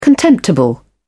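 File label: "contemptible", British English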